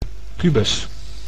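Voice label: "kubus", Dutch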